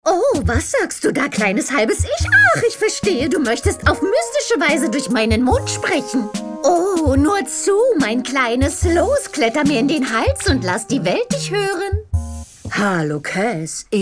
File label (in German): Animation